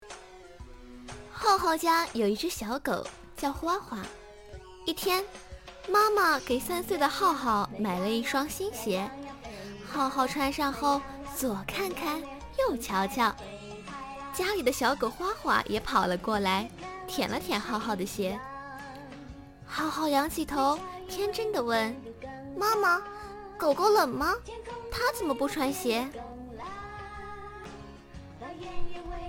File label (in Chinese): 儿童读物